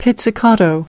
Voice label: "pizzicato", us

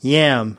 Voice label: "yam", us

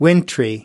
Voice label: "wintry", us

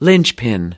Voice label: "linchpin", us

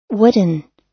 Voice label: "wooden", us

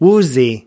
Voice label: "woozy", us

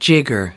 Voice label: "jigger", us

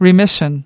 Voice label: "remission", us

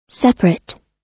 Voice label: "separate", us

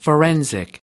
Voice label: "forensic", us

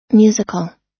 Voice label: "musical", us

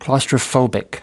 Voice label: "claustrophobic", us

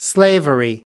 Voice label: "slavery", us

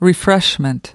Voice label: "refreshment", us

